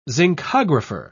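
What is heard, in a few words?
"zincographer", British English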